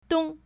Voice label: "dong1", Chinese

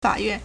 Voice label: "fa3yuan4", Chinese

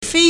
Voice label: "fei1", Chinese

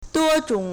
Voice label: "duo1zhong3", Chinese

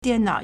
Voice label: "dian4nao3", Chinese

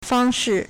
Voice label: "fang1shi4", Chinese

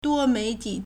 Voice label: "duo1mei2ti3", Chinese

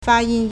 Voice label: "fa1yin1", Chinese

